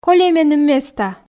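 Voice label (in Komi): кольӧй меным места, Komi, female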